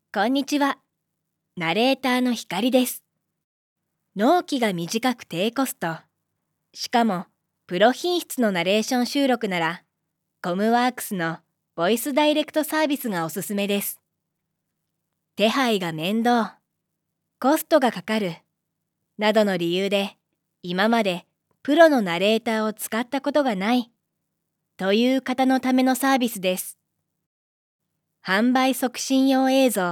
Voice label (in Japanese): 標準, 女性ナレーター